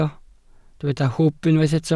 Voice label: "hwb", Welsh